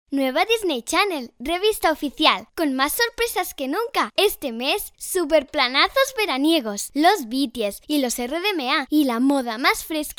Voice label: voz real nina 11, Spanish child voiceover; child voice over: 11 years old; Spanish child voice over services

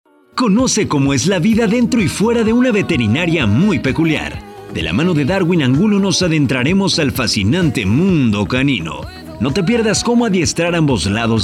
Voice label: locutor mexicano mexican voiceover

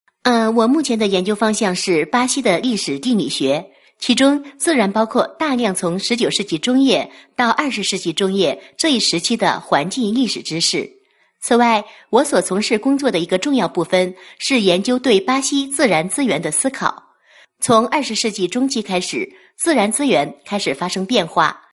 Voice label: locutora china chinese mandarin voiceover; locutora china de doblaje

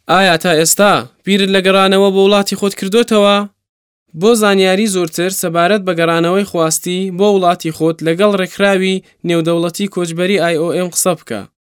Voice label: kurdish sorani male voiceover; Kurdish sorani voice over talent

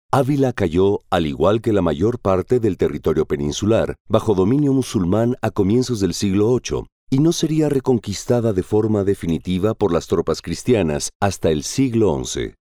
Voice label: locutor mexicano mexican voiceover; locutor de México

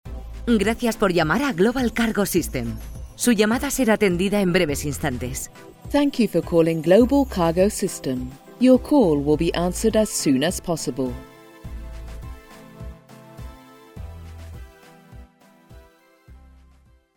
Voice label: centralita ivr spanish

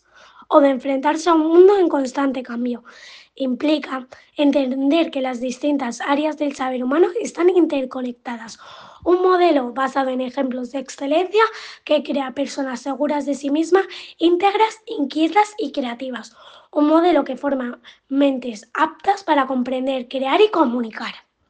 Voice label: locutora nina child spanish voiceover

